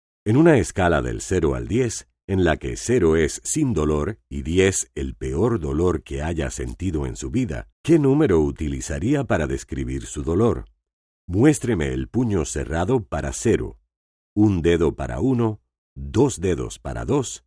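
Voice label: Latin American Spanish, foreign accent voice overs; foreign languages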